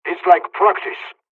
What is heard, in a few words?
japanese first wave, dialogs turkish; War Thunder